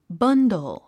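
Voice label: "bundle", English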